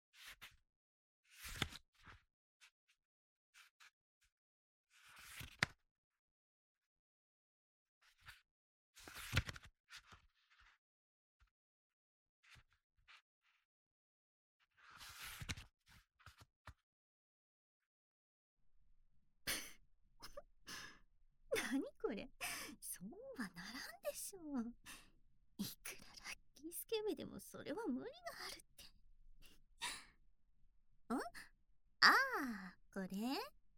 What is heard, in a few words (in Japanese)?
密着囁きボイス+フェラ抜き; SEアリ